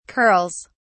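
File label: "curls", British English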